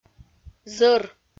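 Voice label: "зӧр", Komi-Zyrian, female; audio dict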